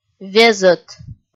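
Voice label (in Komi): "везӧт", Komi, female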